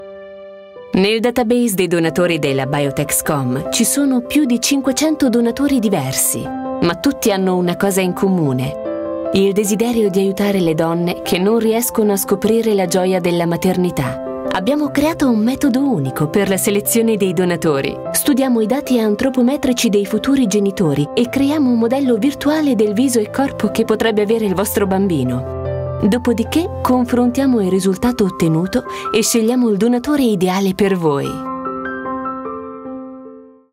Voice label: video aziendale tono morbido; Biotexcom

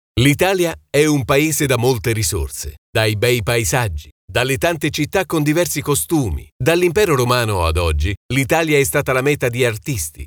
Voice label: narrazione

